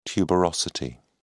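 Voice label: "tuberosity", British English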